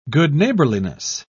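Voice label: "good-neighbourliness", British English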